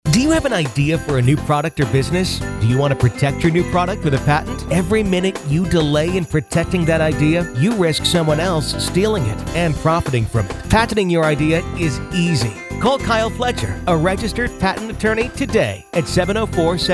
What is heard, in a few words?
Radio Ad